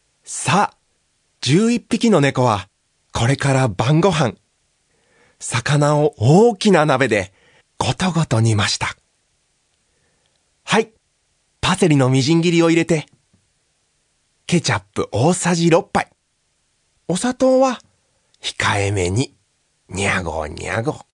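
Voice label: Narration Akaruku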